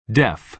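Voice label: "deaf", American English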